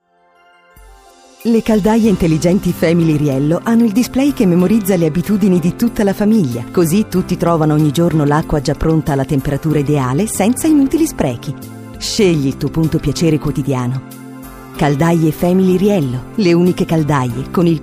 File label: Mix commercials